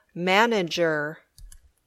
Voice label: "manager", English